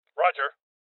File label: Voices Confirmation Male Old; rebel cops 2019 gamerip